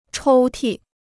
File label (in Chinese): "抽屉", Chinese